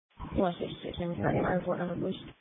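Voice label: Police Patrol Dispatch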